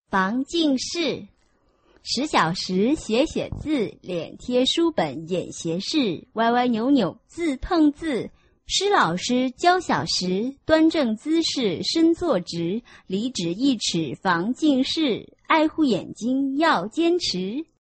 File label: chinese tongue twister